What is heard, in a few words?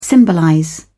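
"symbolize", English, British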